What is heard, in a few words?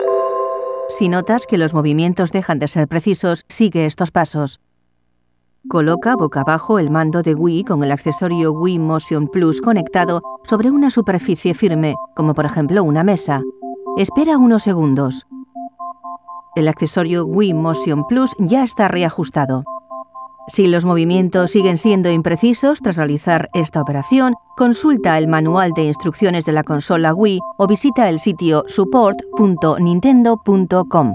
Recalibrating the Motion Plus (Spanish); the legend of zelda skyward sword wii gamerip 2011